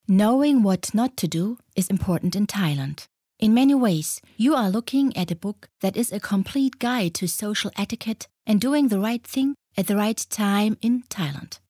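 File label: English take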